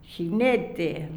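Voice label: "jinete", Spanish, La Plata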